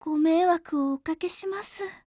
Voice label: CAR FIX JP

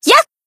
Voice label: Battle Shout; Kaho

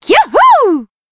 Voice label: Battle Shout; Kasumi

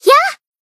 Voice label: Battle Shout; Hifumi

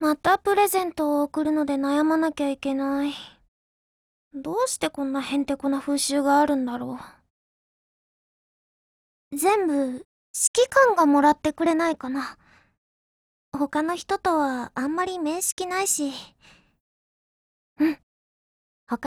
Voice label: CHRISTMAS, JP; CZ100

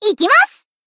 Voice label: Cheerleader Battle Shout; Kotori

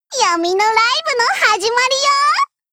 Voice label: warcry

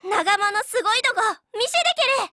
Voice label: ATTACK JP; Type82S

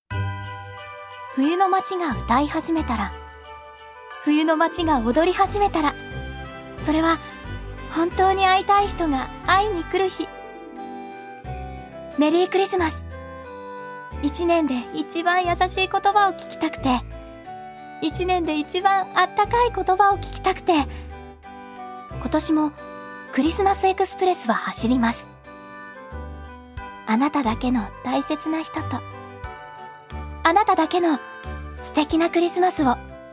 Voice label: Narration

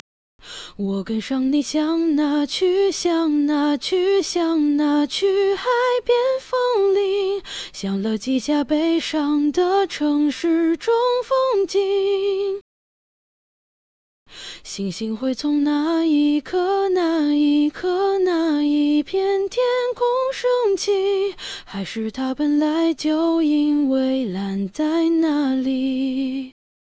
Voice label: whisper

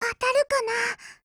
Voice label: attack, JP; M1851N

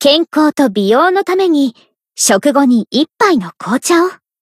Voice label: Cafe monolog; BA; Mine

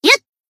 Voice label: Battle Shout; Iori Swimsuit

